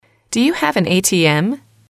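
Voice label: do you have an ATM, female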